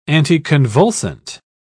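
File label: "anticonvulsant", British English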